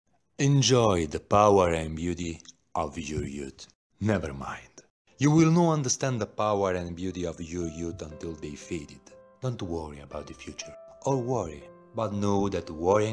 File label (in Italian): Promo voce e MUSICA; ENG